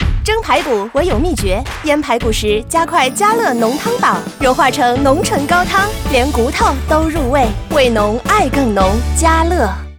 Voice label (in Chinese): 女 真人商业配音; 家乐